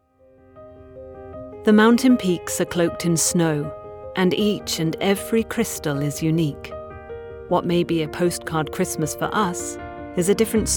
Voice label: narration and documentary